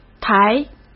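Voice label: "抬", Mandarin Chinese; hsk; chinese flashcards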